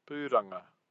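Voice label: "puranga", Māori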